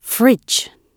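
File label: "fridge" British English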